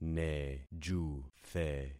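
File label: "nejuthe", concatenated syllables; Affixing experiment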